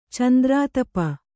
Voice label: "ಚಂದ್ರಾತಪ", Kannada

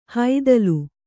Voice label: "ಹೈದಲು", kannada

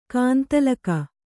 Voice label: "ಗೊಂದಲಿಗ", Kannada

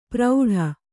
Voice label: "ಪ್ರೌಢ", kannada

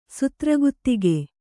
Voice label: "ಸುತ್ರಗುತ್ತಿಗೆ", Kannada

